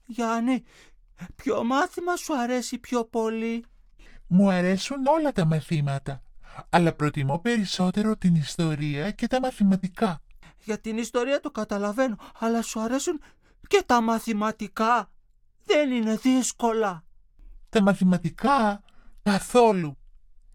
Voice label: dialog